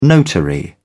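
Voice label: "notary", British English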